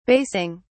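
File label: "basing", British English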